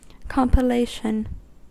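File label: "compilation", US English; pronunciations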